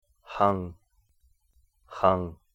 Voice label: "hang", that one, Cahto; "h" in "hung"